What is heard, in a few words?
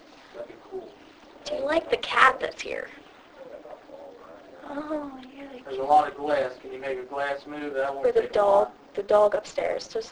Unknown deep voice; red brick tavern